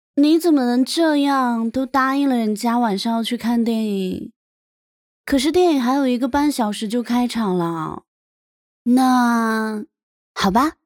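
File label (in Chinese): 台湾 女 台湾腔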